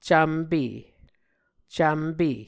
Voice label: "cvmpe", muscogee

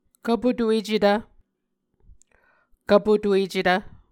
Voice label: "kvpotuecetv", Muscogee

